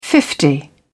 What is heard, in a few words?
"fifty", English